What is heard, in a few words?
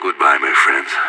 bomb fallback, radio; IDF